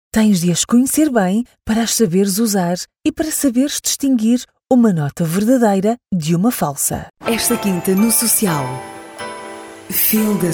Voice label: female, portuguese voices